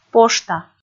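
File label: "пошта", Komi, female; kpv audio dict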